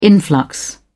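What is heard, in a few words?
"influx", British English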